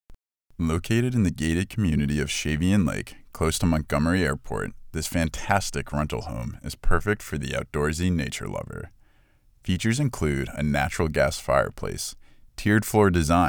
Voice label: Promotional